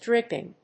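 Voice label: "dripping", English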